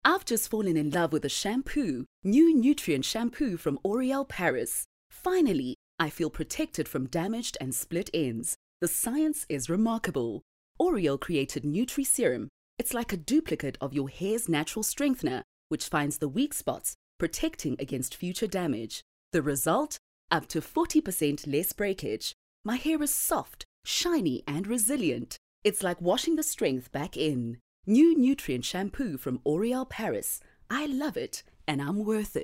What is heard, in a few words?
English Conversational